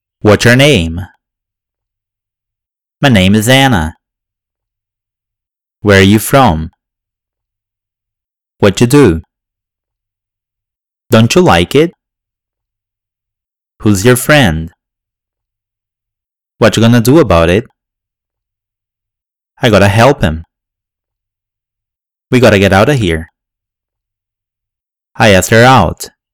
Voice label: Connected Speech